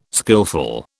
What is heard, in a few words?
"skillful", British